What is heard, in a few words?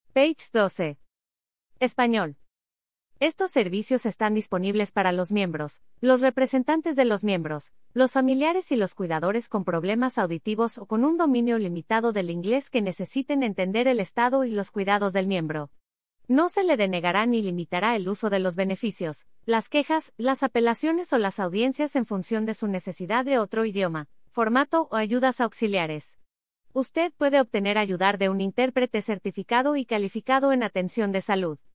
spanish